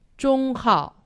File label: "zhonghao", Chinese; Survival Chinese